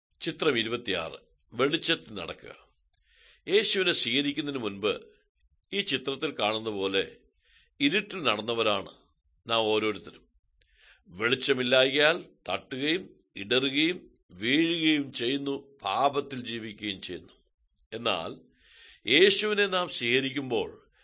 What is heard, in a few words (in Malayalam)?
good news malayalam, drama